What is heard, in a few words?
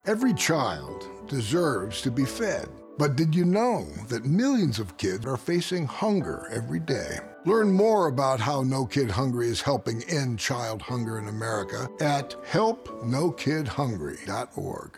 Radio